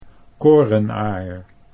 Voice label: "korenaar", Dutch